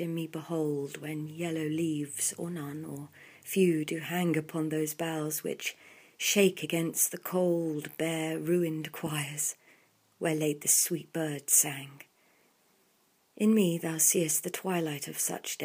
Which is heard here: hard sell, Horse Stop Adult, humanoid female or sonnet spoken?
sonnet spoken